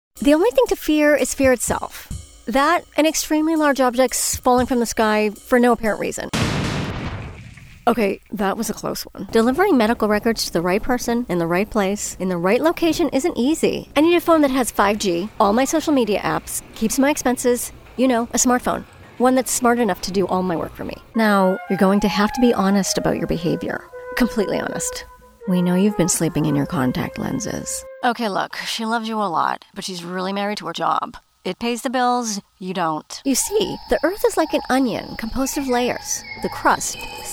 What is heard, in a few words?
COMM Demo; commercial demo; Wicked Boston accent